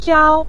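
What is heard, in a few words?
"piao" neutral tone, Mandarin Chinese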